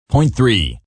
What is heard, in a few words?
Number DJ Point3, Male voice, DJ, radio numbers, point 3, speaking, (human voice)